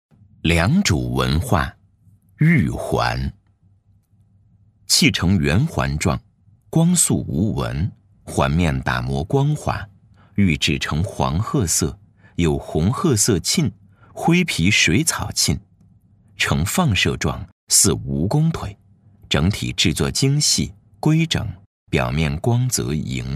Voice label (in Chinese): 男 纪录片 记录感; 良渚; 磁性低沉; 质感磁性; 纪录片《良渚》-记录感